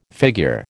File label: "figure", American